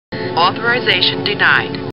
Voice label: Star Trek Computer Voice; StarTrekLCAR